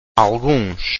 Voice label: "alguns", Portuguese